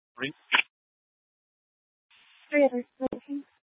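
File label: Tempe police department, scanner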